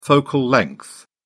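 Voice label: "focal length", British English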